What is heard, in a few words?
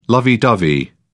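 "lovey dovey", British English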